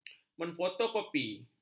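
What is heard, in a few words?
"memfotokopi", Malay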